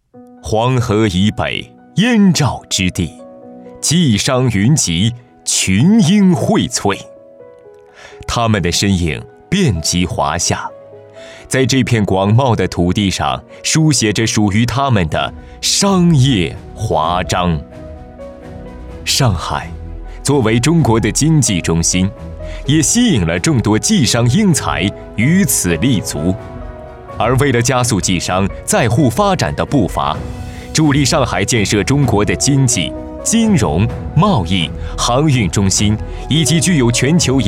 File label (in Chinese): 男 宣传片 大气稳重; 上海市河北商会